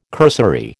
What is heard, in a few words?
"cursory", American